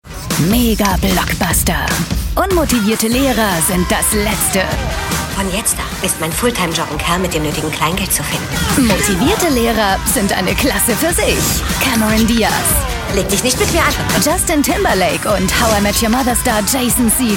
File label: Trailer Voice; Bad Teacher; Pro7